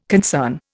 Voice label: "concern", Indian